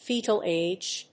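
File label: "fetal age", English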